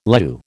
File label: "leu", American